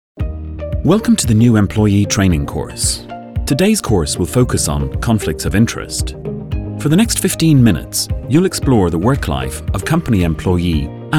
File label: Narrative